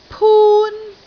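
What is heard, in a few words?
"pun1", Cantonese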